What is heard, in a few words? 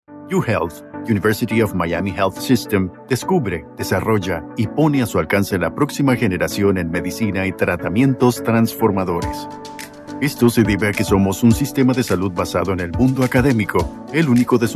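corporativo, Mexican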